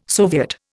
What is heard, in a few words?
"soviet", Indian